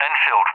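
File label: "Enfield", English; UK; CallSign; DCS; Allied Flight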